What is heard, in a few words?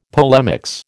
"polemics", American